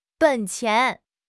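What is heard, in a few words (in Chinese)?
"本钱", Chinese; HSK6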